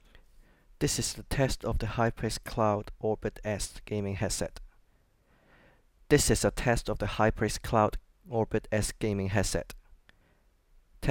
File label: Microphone; HyperX Cloud Orbit S